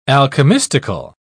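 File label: "alchemistical", British English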